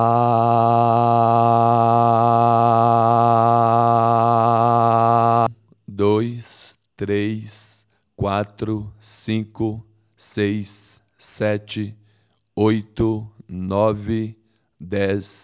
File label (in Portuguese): Ressonância Laringofaríngea